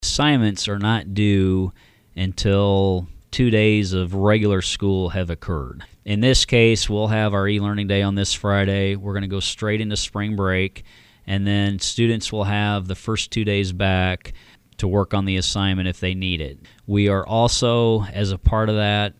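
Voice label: NZ E LEARNING, New Zealand English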